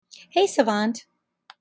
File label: "hey savant", en; wake-word